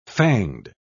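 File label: "fanged", British English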